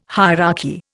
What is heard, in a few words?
"hierarchy", Indian